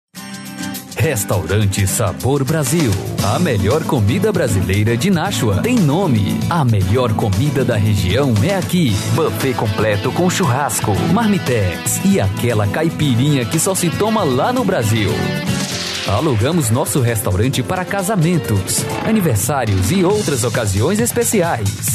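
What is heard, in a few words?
Brazilian Portuguese, male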